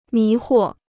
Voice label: "迷惑", Chinese

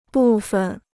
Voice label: "部分", Chinese

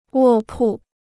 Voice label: "卧铺", Chinese

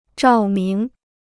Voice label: "照明", Chinese

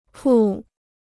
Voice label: "铺", Chinese

